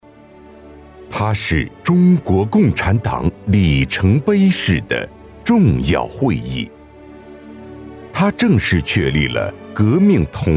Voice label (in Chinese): A男 磅礴大气; 党政专题片; 中共三大